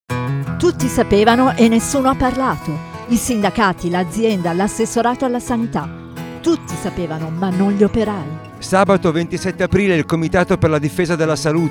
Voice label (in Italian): Spot radio; radio popolare 2019 AMIANTO